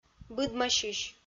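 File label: "быдмасьысь", Komi-Zyrian, female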